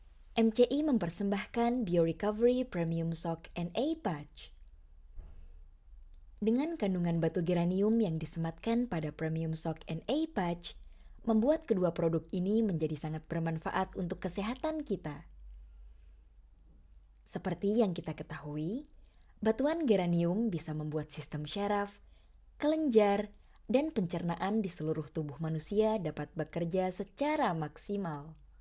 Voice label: BAHASA FORMAL